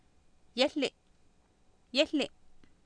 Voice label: "yehli" Nahuatl, Oapan, female; Oapan minimal pairs 2000